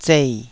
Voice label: "zei3", Taiwanese

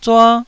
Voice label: "zua1", taiwanese

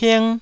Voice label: "ping1", Taiwanese

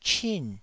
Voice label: "chin4", taiwanese

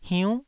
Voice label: "hiunn9", Taiwanese syllable

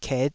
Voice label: "ket5", taiwanese syllables

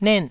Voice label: "net4", taiwanese syllables

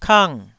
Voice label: "kang4", taiwanese syllable

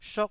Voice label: "shot4", Taiwanese

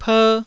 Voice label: "per1", Taiwanese

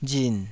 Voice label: "rin3", taiwanese

